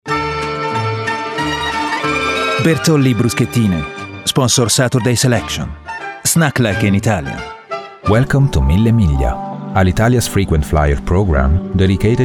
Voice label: English Italian accent